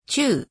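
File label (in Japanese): "ちゅ", 日本語; 五十音基礎聽解題目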